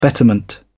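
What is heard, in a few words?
"betterment", British English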